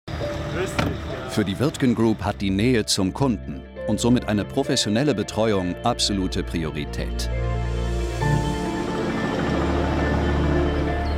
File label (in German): Unternehmensfilm Strassenbau